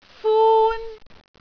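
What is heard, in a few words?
"fun1", Cantonese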